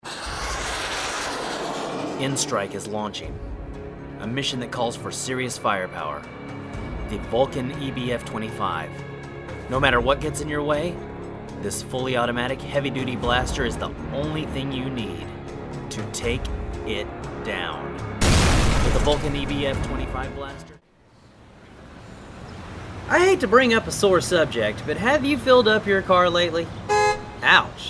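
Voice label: voice over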